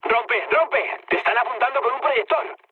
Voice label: searchlite, mood low, speech; War Thunder

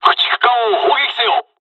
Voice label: dialogs japanese; War Thunder

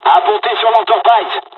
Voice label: landing, dialogs french; midway us; War Thunder

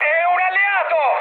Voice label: player friendly fire mood high, speech it; War Thunder

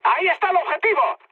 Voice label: near waypoint, mood high, speech; War Thunder

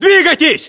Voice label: order move generic, ru; localized english

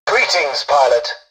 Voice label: tutorial dialogue, english; War Thunder

